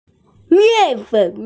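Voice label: "mian4fen3", Mandarin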